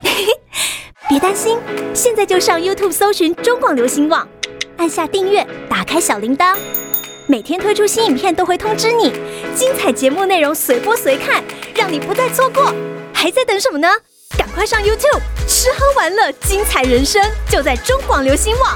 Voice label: chinese mandarin commercial